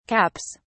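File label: "caps", English, British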